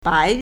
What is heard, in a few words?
"bai2", Chinese